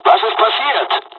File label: dialog, dialogs german; War Thunder; midway